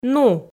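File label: "ну", Komi, female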